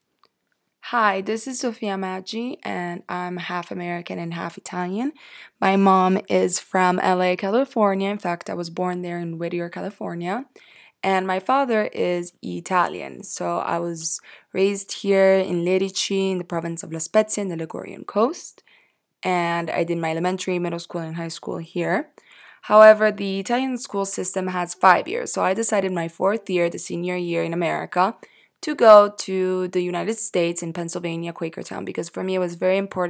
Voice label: snippet USA English